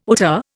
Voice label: "hauteur", Indian

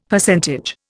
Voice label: "Percentage", Indian; Dictionary

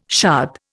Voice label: "shad", Indian

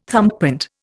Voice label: "thumbprint", Indian

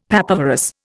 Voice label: "papaverous", Indian; Dictionary

